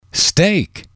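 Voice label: "steak", English, male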